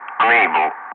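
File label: "unable", English, Wingman; Ka-50; DCS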